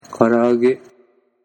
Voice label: "karaage", Japanese